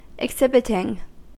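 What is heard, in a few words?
"exhibiting", American English; pronunciations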